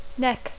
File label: "neck", English